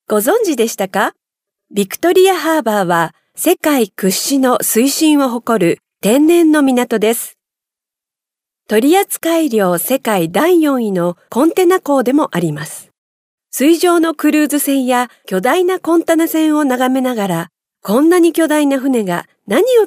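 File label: Japanese female corporate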